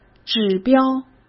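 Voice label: "指标", Mandarin Chinese; chinese flashcards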